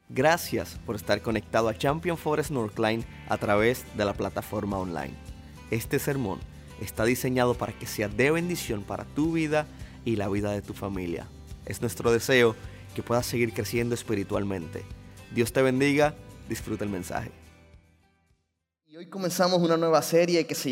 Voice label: Sermon Spanish

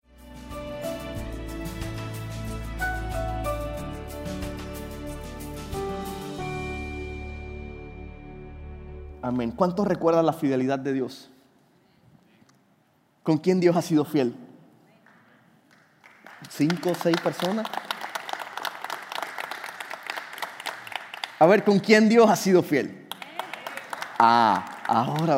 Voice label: Sermon Spanish

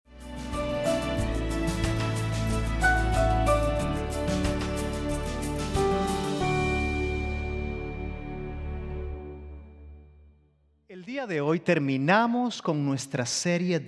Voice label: SPA SERMON

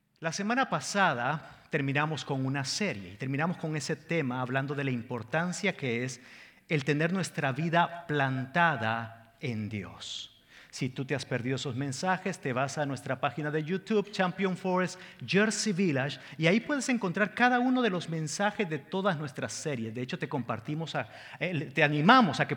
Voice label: SERMON SPA

